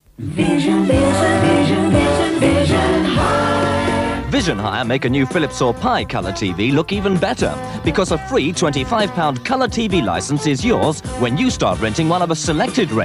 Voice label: Radio Advert; Visionhire Free TV Licence; Radio Hallam